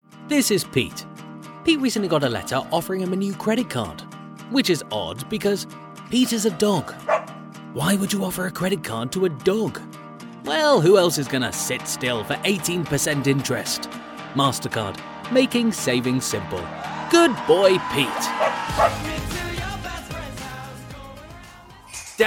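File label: UK English, Commercial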